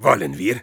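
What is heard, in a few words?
Spy battle cry, German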